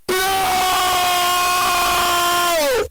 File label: nooooooo earrape; plants vs zombies